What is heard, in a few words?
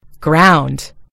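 "ground", American English; gcompris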